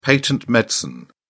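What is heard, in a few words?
"patent medicine", British English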